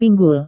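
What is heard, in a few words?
"pinggul", Indonesian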